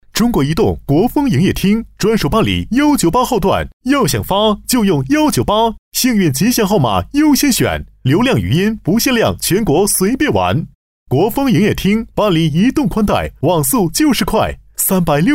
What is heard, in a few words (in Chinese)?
男 促销 营业厅